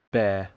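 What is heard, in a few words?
"bear", English word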